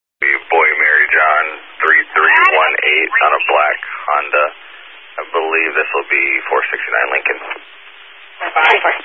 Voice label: Highway Patrol, Phoenix Metro East Area, scanner